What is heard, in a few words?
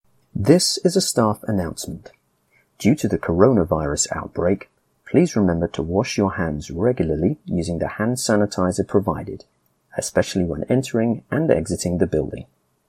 Coronavirus wash hands male voice